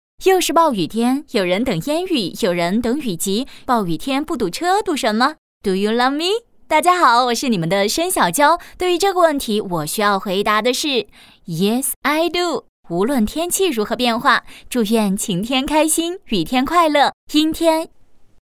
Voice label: 女 专题 交通路况

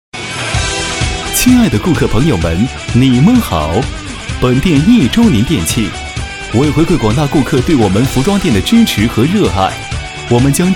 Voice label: 男 促销 服装

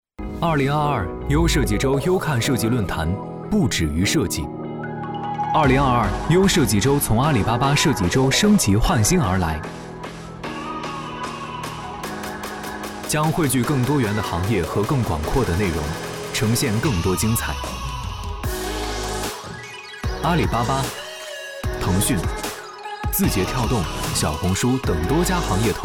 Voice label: 男 年轻 时尚; U设计周

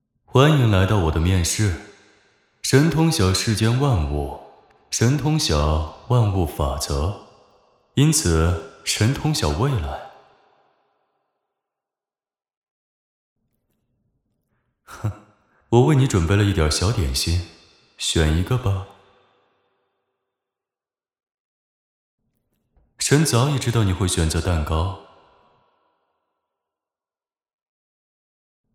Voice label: 男 角色 冷傲神明男

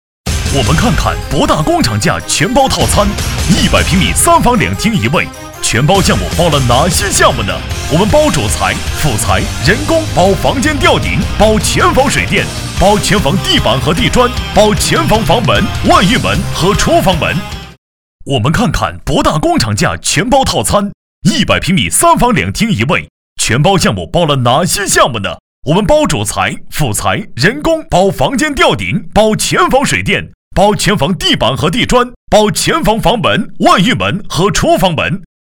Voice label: 男 电购 家装配音

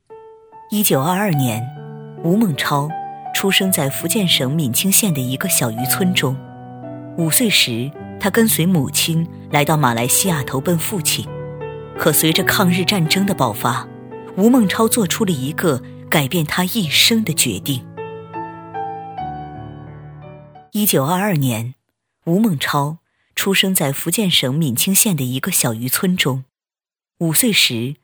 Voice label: 素人 自然叙述

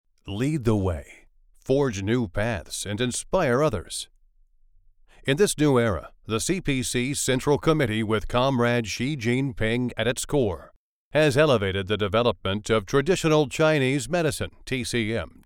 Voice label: 深沉磁性 成熟宣传片

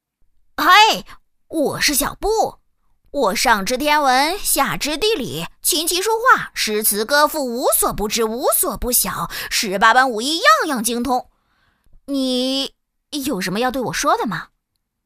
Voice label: 女-可爱活泼

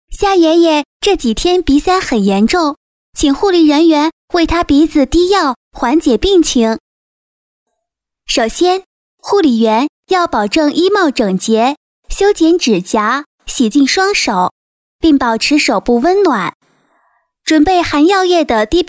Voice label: 女 童声; 滴药水

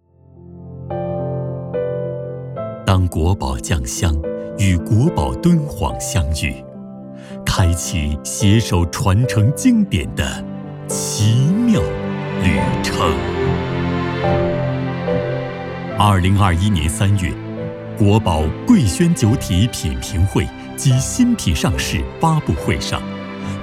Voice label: 男 专题 文化 气质 讲述; 国宝遇见国宝

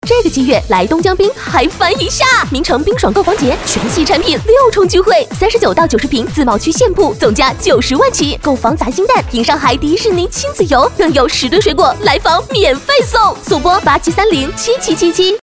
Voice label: 女 欢快电台; 东江滨

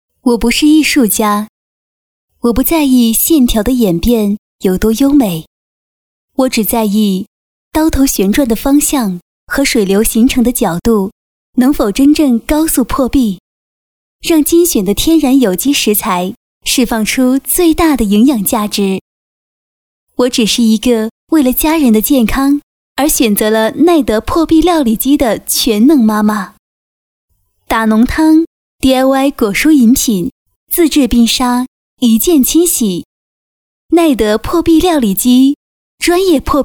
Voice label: 女 广告 自然; 耐德破壁料理机

